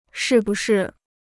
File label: "是不是", Chinese